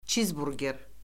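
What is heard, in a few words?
"çizburger", Azerbaijani; Orfoqrafiya Lüğəti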